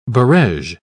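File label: "barege", British English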